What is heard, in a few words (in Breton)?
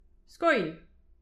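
"skoilh", Breton; petit precis de prononciation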